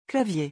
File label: "clavier", French, musical instruments; vocabulary